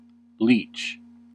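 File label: "leech", US English; pronunciations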